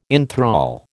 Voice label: "inthrall", American

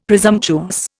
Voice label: "presumptuous", Indian; Dictionary

